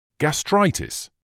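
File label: "gastritis", British English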